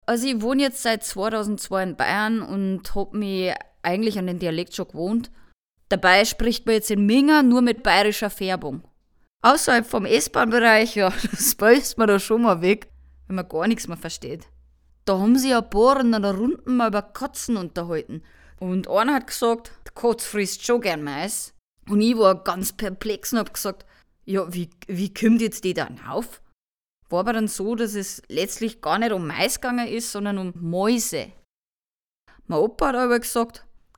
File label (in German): Bairisch